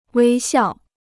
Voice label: "微笑", Chinese